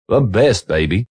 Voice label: The Best Baby, Radio, Male